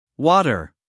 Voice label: "water", us male